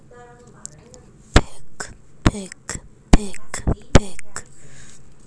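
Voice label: "pick", spoken word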